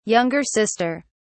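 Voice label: "younger sister", British English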